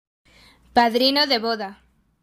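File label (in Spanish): "padrino de boda", Spanish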